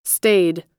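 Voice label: "stayed", American English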